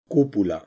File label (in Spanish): vocal u "cupula", castilla masculino; spansk; fonetica